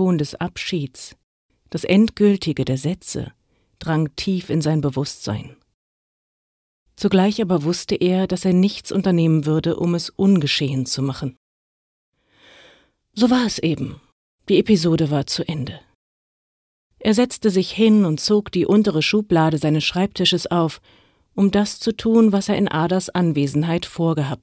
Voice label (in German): langsam